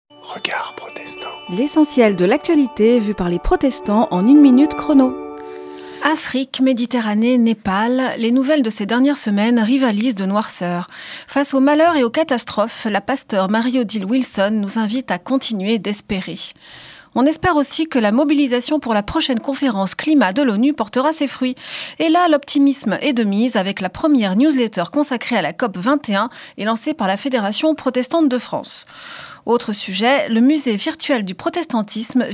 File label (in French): Spot radio; Regards protestants